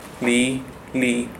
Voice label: li male